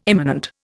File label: "immanent", Indian